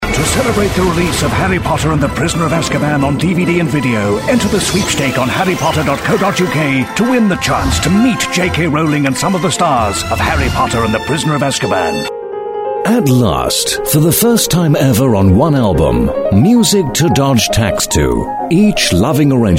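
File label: UK male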